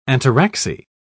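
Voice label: "ataraxy", English, uk British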